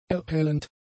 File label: "erhellend", German; adjectives